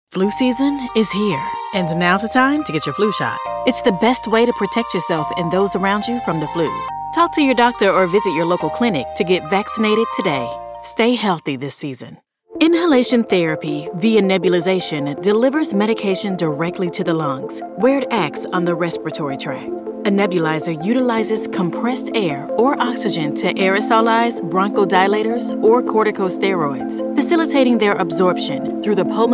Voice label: english american medical narration